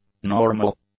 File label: "normal", english; adjectives